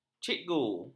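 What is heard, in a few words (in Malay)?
"cikgu", Malay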